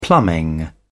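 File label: "plumbing", British English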